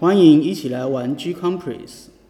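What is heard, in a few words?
welcome, Brazilian Portuguese; gcompris-data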